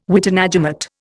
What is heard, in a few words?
"witenagemot", Indian